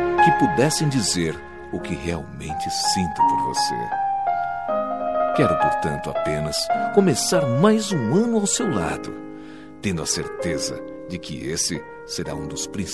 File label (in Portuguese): Feliz Ano Novo Romantico